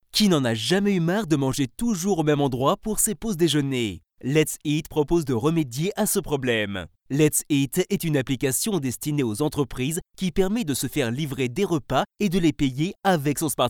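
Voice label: French male Commercial; voice over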